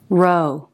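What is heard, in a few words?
"row", English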